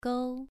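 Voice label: "gou", Mandarin Chinese, second tone, pronunciation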